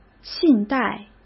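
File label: "信贷", Mandarin Chinese; chinese flashcards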